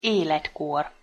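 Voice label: "eletkor", Hungarian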